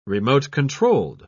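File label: "remote-controlled", English, British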